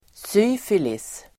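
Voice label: "syfilis", Swedish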